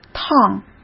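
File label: "烫", Mandarin Chinese; chinese-flashcards; hsk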